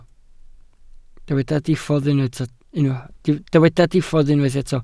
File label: "diffodd", Welsh